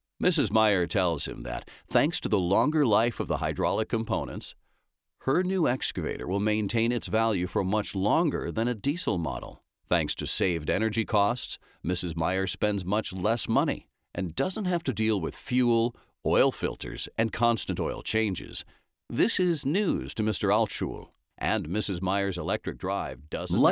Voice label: Explainer Narration